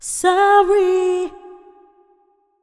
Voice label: "Sorry", Vocals, Wet; GhostHack